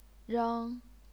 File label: "reng1", chinese pinyin